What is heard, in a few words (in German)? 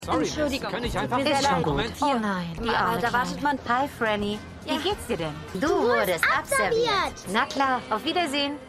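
Kostümierte Frau, Stimmproben; Shameless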